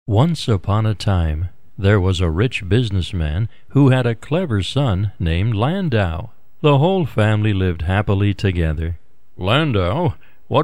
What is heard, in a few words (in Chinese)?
外籍男, 童声故事, CARTOON VOICE